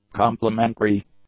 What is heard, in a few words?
"complementary", English; adjectives